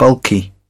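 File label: "bulky", British English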